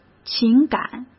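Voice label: "情感", Mandarin Chinese; hsk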